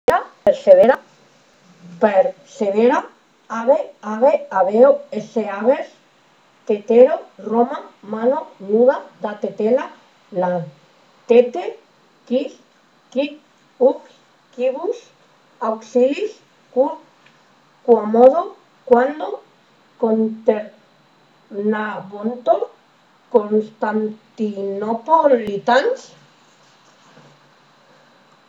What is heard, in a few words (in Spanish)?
grabacion latin